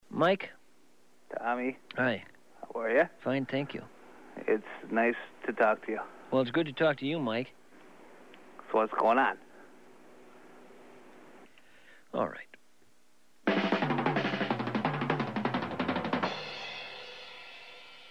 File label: short news break